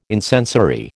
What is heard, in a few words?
"incensory", American